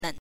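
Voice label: "nen", Mandarin pinyin, neutral tone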